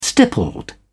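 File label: "stippled", English, British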